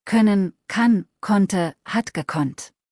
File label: "koennen", deutsch; verben kurzliste